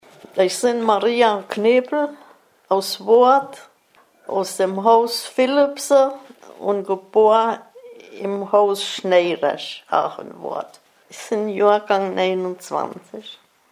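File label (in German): vorstellung, O-Ton Hunsrück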